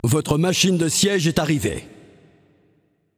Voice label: Announcer French Match Siege Arrived